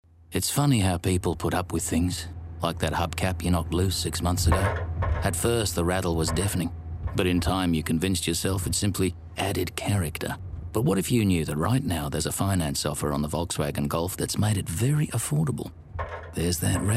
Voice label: Australian Accent voice over; VW Golf; Voice Overs Commercials